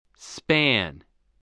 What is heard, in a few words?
"span", English voice